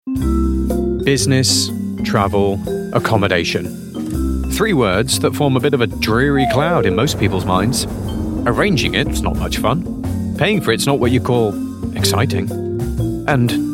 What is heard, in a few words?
corporate